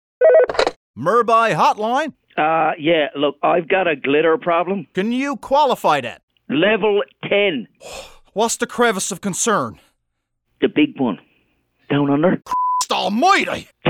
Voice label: Radio; Glitter Problems